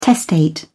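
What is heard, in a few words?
"testate", English, British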